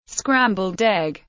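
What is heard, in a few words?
"scrambled egg", English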